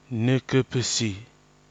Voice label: "nɨkɨpisi", Kwamera; n. sandalwood

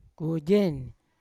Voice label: "koigen", Yawa; rotten; unat

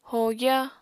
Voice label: "hogya", Apatani

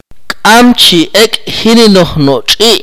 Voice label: "the dog does not run", Akateko

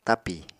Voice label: "tapi", apatani

